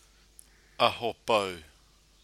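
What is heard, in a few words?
"ahopou", Maori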